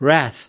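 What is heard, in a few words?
"wrath", US English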